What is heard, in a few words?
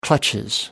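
"clutches", English, British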